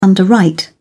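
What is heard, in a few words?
"underwrite", British English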